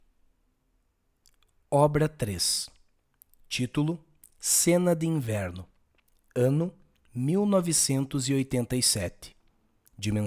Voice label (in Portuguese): audiodescricao; Galeria Virtual